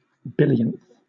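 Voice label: "billionth", British English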